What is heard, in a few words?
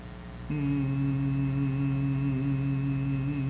MM, phones